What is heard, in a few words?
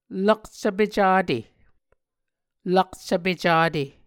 "lakcvpe cate", Muscogee